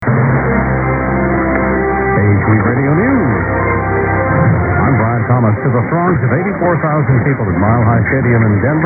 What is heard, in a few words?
news ap radio news; wdrc